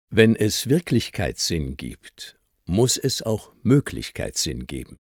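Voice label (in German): soundbeispiel ohne effekt männlich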